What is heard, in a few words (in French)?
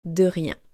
"De rien", French